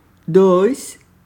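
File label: Portuguese numbers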